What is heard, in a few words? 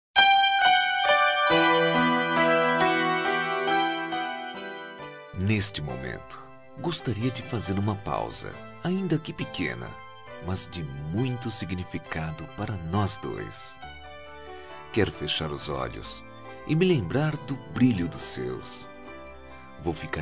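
male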